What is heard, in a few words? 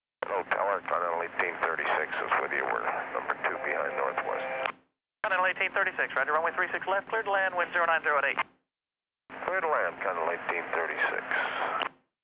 chatter; rigs of rods